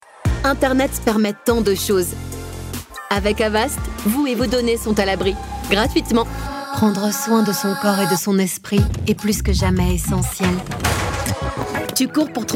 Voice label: french voice commercial

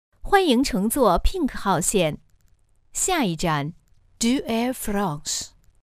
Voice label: mandarin chinese announcement

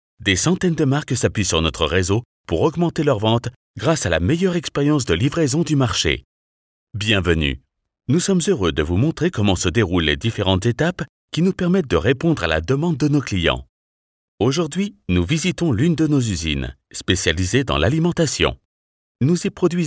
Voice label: french male voiceover corporate

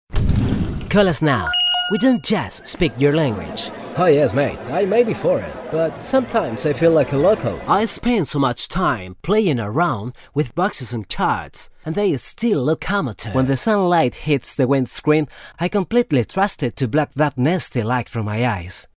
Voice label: spanish male english

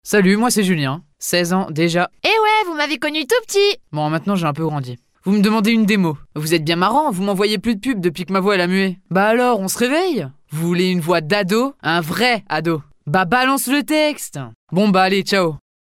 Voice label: french female teenage boy

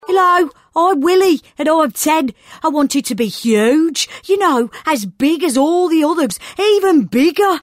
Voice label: boy character

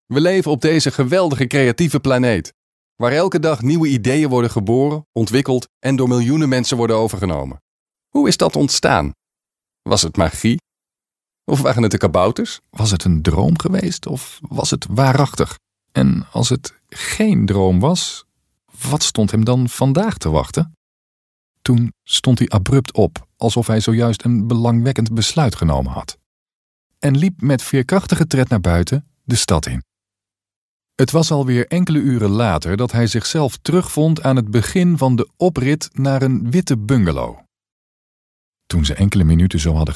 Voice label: dutch voice natural

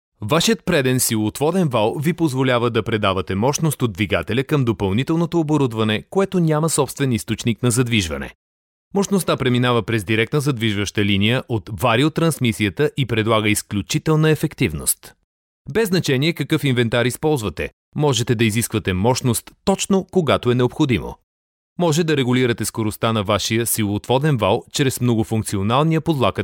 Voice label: bulgarian male corporate

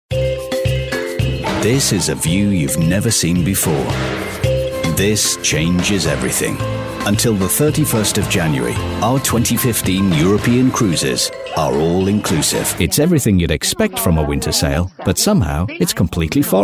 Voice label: commercials

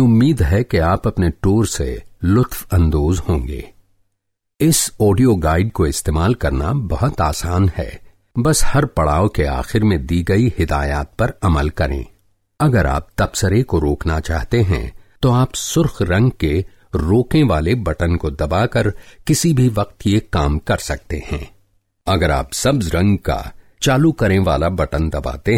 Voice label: urdu

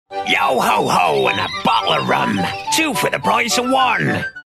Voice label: pirate

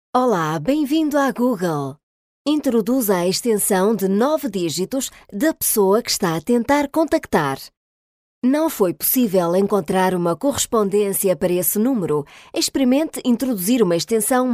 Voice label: portuguese female telephone

